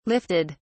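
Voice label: "lifted", British English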